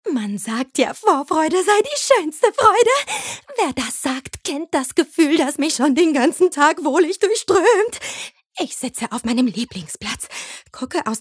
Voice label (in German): Sprachprobe wild wahnsinnig